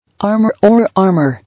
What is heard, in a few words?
"Armor or Armour", English